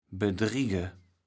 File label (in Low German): "bedriege", kaevels platt